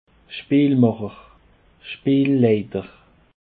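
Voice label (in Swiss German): "speelmacher", Alsatian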